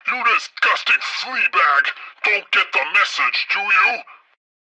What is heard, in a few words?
tutorial chopper; midwave; Blind Drive